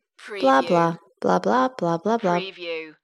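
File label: Woman Blah Blah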